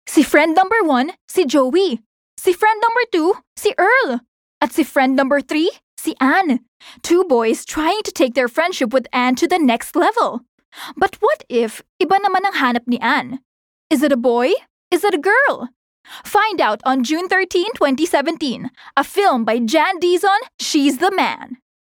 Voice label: Taglish (Engaging, Fun